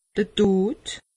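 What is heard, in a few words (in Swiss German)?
"todd", Alsatian; medecine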